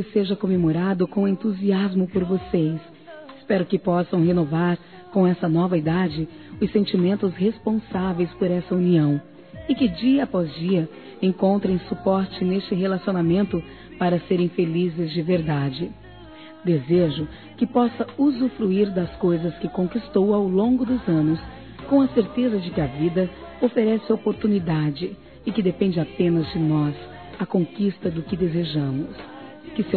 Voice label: MADRASTA F, Telemensagens, Aniversários, Fem; Aniv Familiar

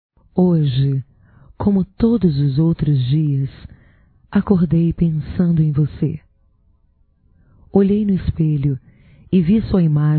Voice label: SONHANDO COM VOCE (F); Românticas

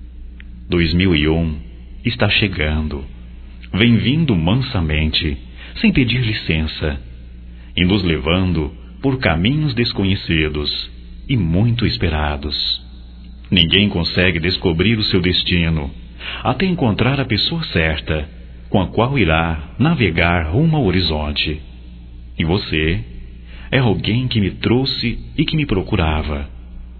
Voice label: Natal geral, masc

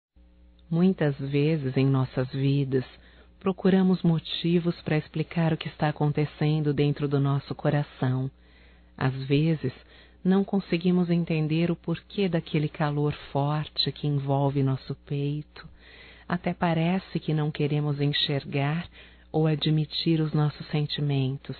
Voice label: ROMÂNTICA (F)

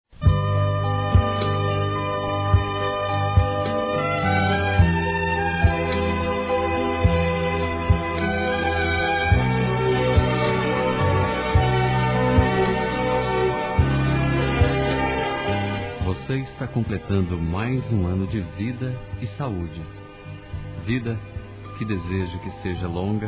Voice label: ANIV. NEUTRO F

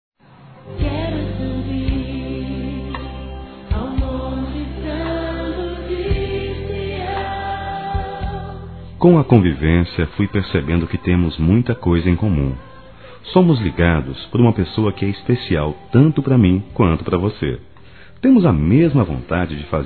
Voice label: ANIV GENRO OU NORA (M), Telemensagens; ANIV. Evangelico

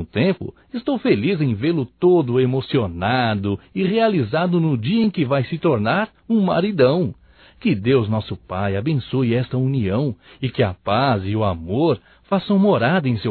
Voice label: PARABENS PELO CASAMENTO DO FILHO (M); Casamentos

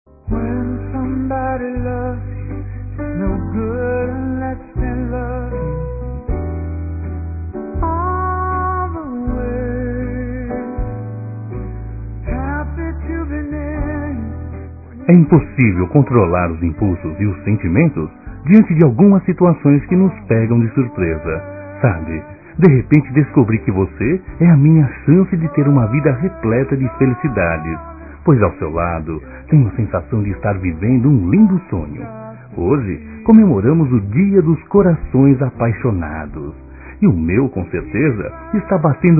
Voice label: GERAL M; Telemensagens; Dia Dos namorados; masc; Telemensagens 2017

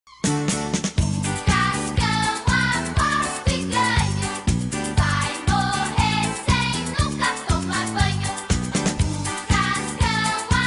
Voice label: TELEMENSAGEM BRONCA EM QUEM NÃO GOSTA DE TOMAR BANHO, Fem; duBroncas

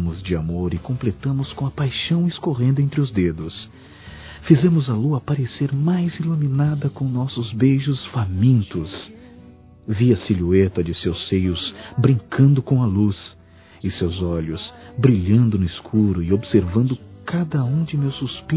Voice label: NOSSA NOITE FOI DEMAIS (M), Telemensagens Sensuais masc

